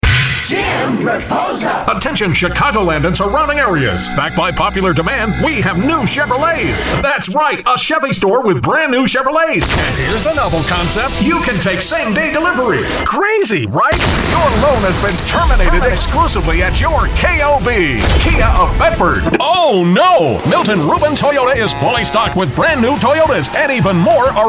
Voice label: Auto Dealer VO